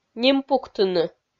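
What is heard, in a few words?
"ним пуктыны", Komi, female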